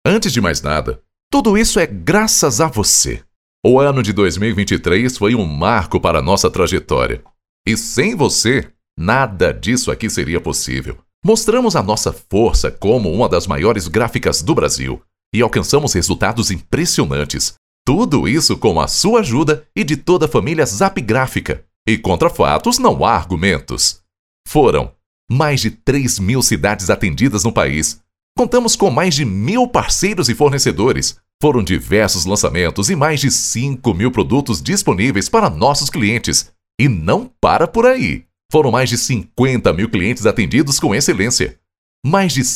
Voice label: Institucional

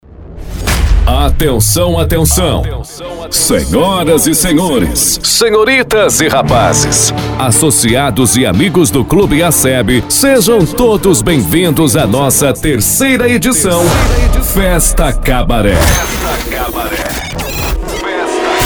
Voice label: Spot Especial; Club Acebe

